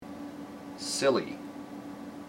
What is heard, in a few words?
"silly", IPA